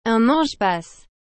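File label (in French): "un ange passe", French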